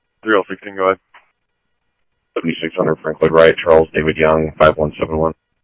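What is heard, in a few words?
police department, scanner audio trunk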